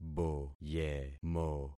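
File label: "boyemo", concatenated syllables; Affixing experiment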